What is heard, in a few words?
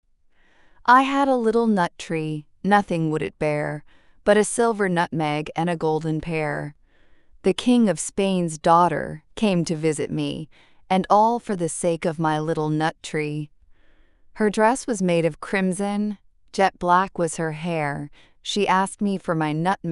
fem us; I Had a Little Nut Tree